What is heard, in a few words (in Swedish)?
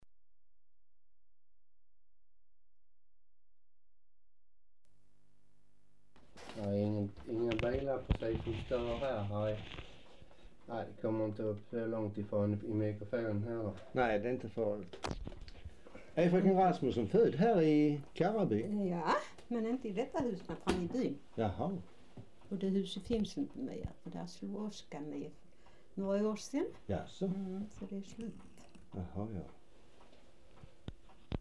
dialekter, svenska sagor; Folkminnen